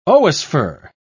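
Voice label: "oosphere", British English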